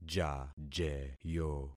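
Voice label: "jajeyo", concatenated syllables; Affixing experiment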